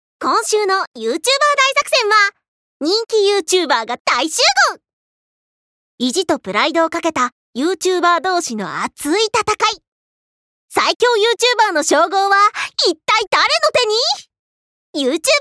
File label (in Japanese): ナレーション